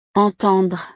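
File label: "entendre", French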